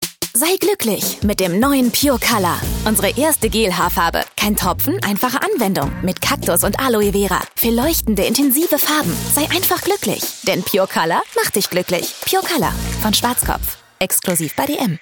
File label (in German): werbung tv; pure color schwarzkopf